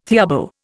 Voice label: "theorbo", Indian

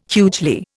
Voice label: "hugely", Indian

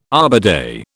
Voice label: "Arbor Day", British

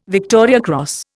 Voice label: "Victoria Cross", Indian

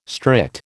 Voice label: "strict", American

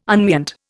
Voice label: "unmeant", Indian

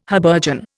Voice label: "habergeon", Indian

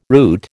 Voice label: "route", American

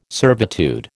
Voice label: "servitude", American

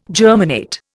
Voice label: "germinate", Indian

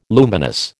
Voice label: "luminous", American

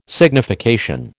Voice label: "signification", American

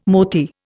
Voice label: "mothy", Indian

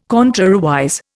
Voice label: "contrariwise", Indian

